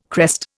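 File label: "crest", Indian English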